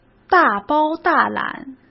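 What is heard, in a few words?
"大包大揽", Mandarin Chinese; chinese flashcards; hsk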